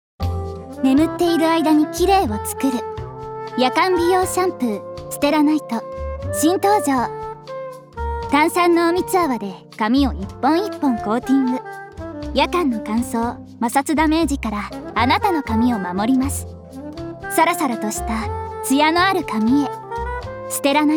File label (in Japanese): ナレーション